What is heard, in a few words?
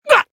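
Voice damaged, Japanese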